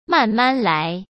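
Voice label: "慢慢来", Mandarin Chinese; hsk; chinese-flashcards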